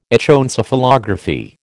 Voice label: "Echoencephalography", American

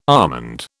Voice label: "almond", British

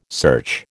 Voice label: "search", American

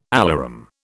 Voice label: "alarum", British

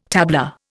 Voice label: "tabla", Indian

